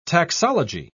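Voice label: "taxology", British English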